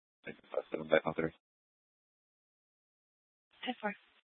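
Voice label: police department dispatch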